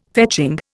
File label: "fetching", Indian